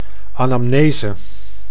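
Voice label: "anamnese", Dutch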